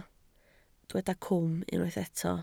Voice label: "cwm", Welsh

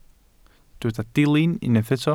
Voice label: "dilyn" Welsh

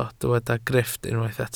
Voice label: "grifft", Welsh

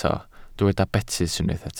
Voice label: "betys", Welsh

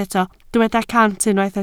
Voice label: "cant", Welsh

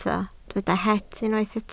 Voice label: "het" Welsh

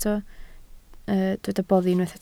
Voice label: "boddi", Welsh

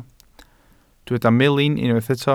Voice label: "melin", Welsh

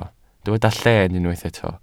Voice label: "lleen" Welsh

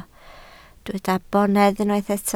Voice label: "bonedd", Welsh

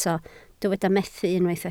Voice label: "methu" Welsh

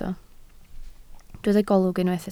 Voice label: "golwg", Welsh

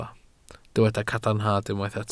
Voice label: "cadarnhad", Welsh

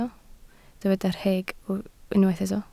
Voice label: "rheg", Welsh

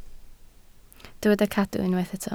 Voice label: "cadw" Welsh

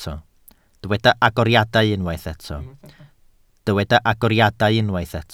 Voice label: "agoriadau", Welsh

